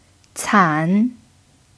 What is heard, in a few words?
"can3", Mandarin pinyin